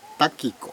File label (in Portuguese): "dois", kalapalo